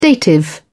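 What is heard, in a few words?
"dative", English, British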